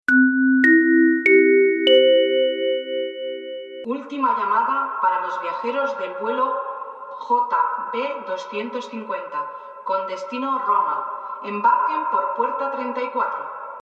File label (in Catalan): megafonia